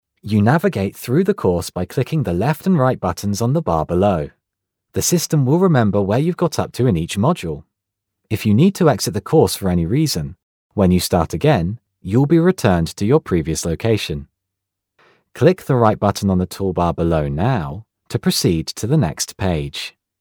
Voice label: E-Learning